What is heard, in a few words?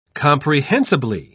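"comprehensibly", English, British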